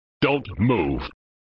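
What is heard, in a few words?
"Don't Move!", male voice; Worf; flipper; sttng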